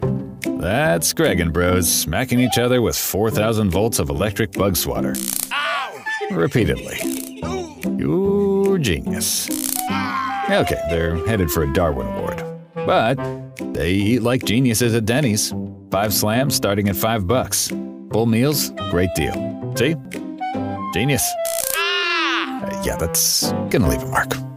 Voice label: Commercial; Dennys